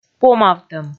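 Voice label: "помавтӧм", Komi, female; kpv audio dict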